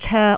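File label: "coek3", Cantonese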